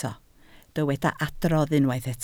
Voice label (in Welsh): "adrodd", Welsh